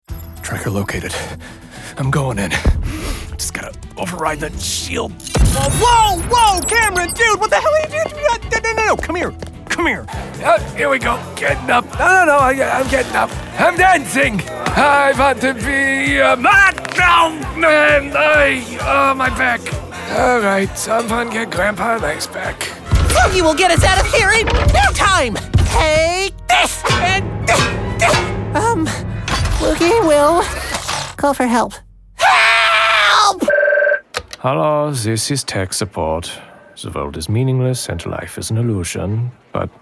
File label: animation, voice acting; global voice acting academy